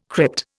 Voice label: "crypt", Indian

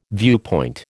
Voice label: "viewpoint", American

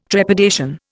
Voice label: "trepidation", Indian

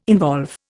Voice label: "involve", Indian

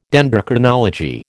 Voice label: "Dendrochronology", American

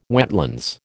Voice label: "wetlands", American

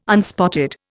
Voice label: "unspotted", Indian

